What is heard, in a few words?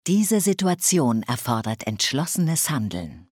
Decisive Action, Speech German Advisors; Civ5